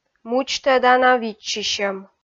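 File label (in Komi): "мудзтӧдана виччысьӧм", Komi, female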